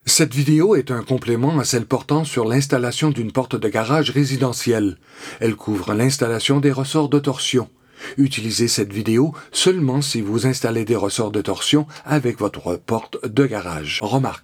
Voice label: French Canadian Male 50, Foreign Voice Talent; French - Canadian Male (50 y.o.)